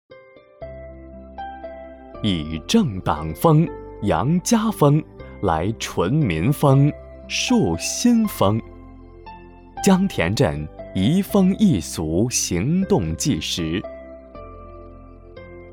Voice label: 男, 中文, 专题类; 移风易俗解说

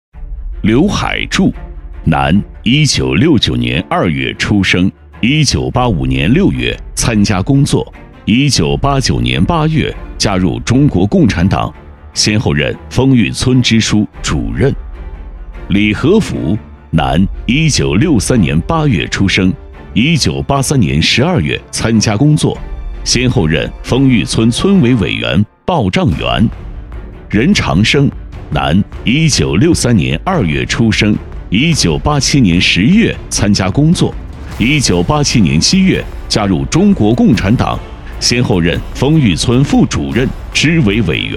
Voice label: 男; 蚁贪; 覆灭记

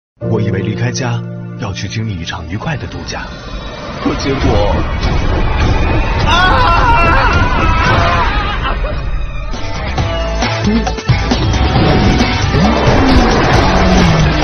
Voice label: 广告; 乐高城市

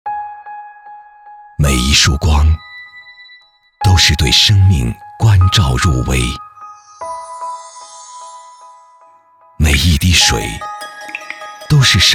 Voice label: 男 广告 品质广告; 丹泉造物

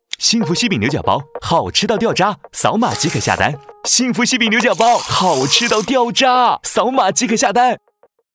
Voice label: 男 青春; 幸福西饼牛角包

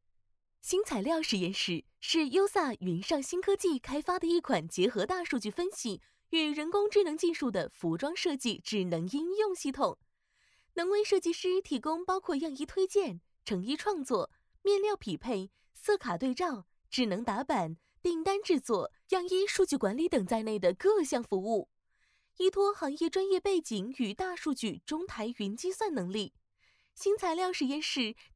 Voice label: 女 解说 温柔欢快; 星材料实验室

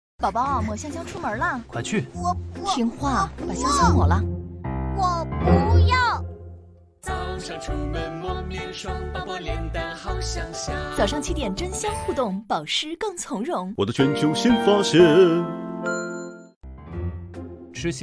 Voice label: 女; 宝宝面霜

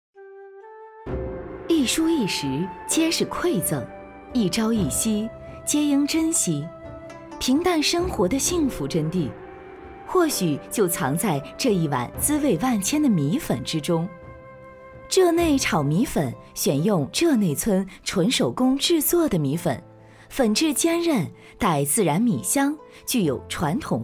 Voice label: 女,美食,炒米粉; 舌尖上的美食

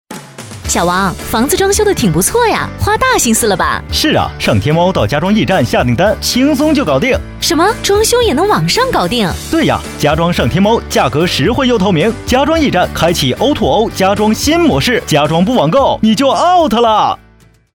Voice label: 女 广告 角色对白

